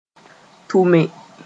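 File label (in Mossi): "tʋme", Mooré; induct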